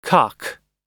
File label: "cock", pronunciation